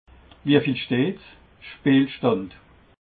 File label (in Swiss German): "wie viel stehts", alsace